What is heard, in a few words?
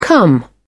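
"come down", British English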